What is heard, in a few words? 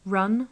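"run", British English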